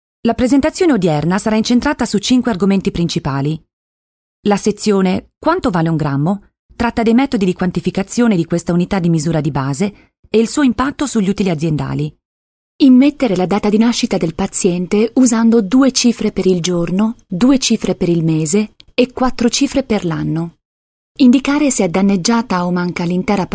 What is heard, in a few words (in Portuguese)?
italiano feminino